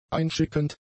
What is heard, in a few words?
"einschickend", German; adjectives